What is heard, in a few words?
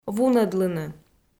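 "вунӧдлыны", Komi, female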